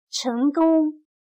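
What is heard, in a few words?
"成功", Chinese; Lograr la meta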